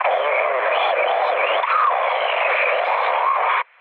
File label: damaged pilot, speech hun; War Thunder